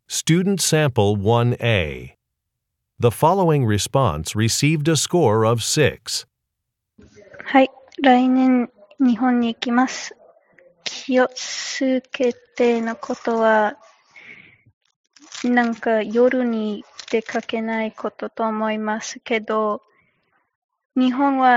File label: japanese conversation; ap japanese language and culture